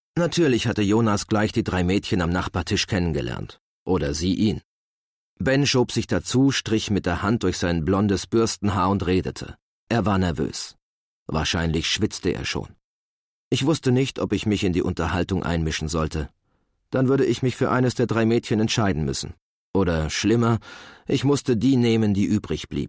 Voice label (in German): erzaehlung